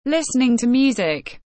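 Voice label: "listening to music", English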